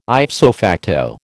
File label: "ipso facto", American